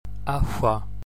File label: broad f & ph, gaelic